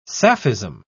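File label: "sapphism", British English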